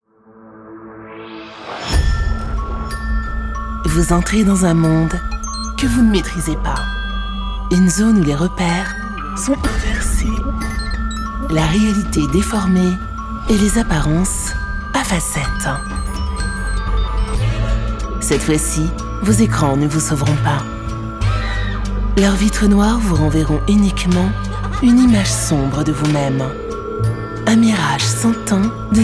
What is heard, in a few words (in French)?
Bande Annoce; Black Mirror; France 2